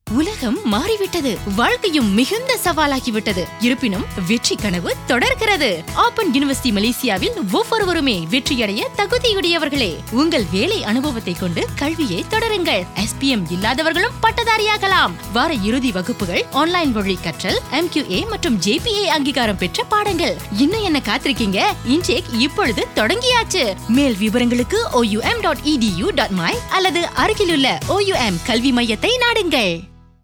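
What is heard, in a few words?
Tamil; DEC INTAKE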